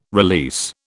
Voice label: "release", British